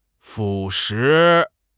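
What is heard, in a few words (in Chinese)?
"腐蚀", Chinese; HSK6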